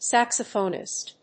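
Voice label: "saxophonist", English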